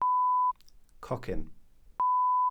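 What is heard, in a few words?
"cocyn", Welsh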